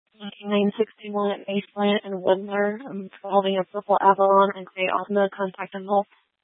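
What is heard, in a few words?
Tempe Police Department, scanner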